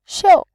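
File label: "xiu", Mandarin Chinese, tone 4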